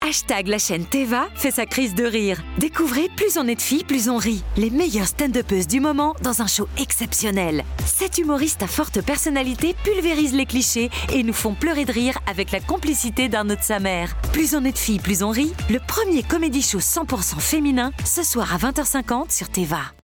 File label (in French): spot; M6; TEVA